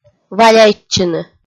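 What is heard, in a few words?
"валяйтчыны", Komi, female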